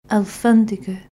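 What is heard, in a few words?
"Alfandega", Portuguese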